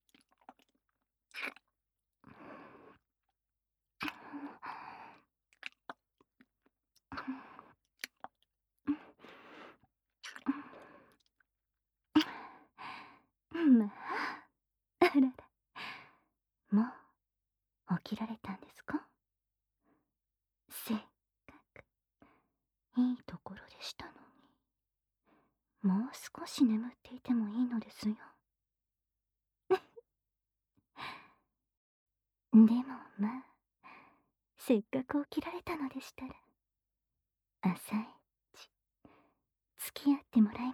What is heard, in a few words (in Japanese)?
目覚ましアラーム音声